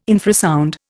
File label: "infrasound", Indian